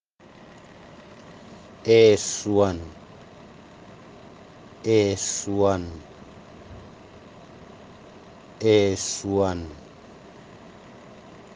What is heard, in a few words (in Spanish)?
"esuan", palabra fang